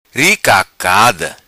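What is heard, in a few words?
"Ri Cacada", patua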